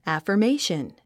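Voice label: "affirmation", English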